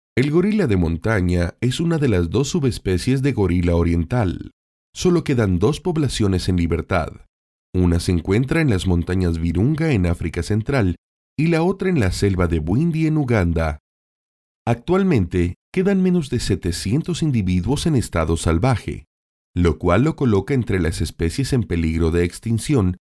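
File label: Spanish Latin America male voice over, narrative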